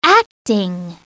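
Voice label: "acting", English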